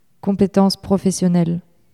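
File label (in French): "competence professionnelle", French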